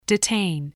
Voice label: "detain", english spelling